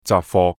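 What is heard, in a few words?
"雜貨", Cantonese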